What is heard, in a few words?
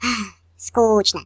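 Boring, Russian; hedgewars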